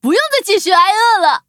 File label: levelup zh-hant; Cookie Run